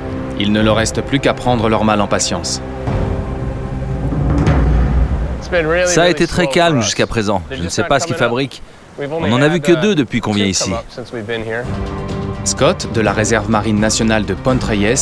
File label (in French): Narration requins